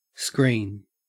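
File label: "screen", Australian English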